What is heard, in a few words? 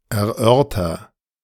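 "erörter", German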